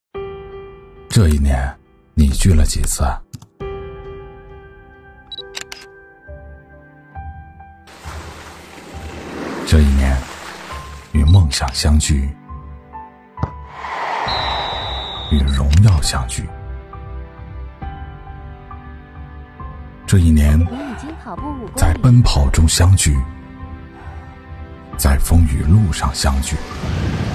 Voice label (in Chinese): 酒央视广告; 毛铺酒（央视广告）